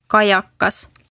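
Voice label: "Kajakas", Estonian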